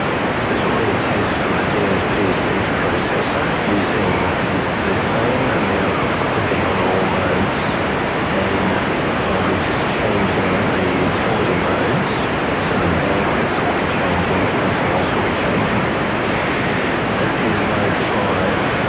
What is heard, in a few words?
Normal Clipping 5dB SNR